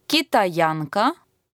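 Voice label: chinese, female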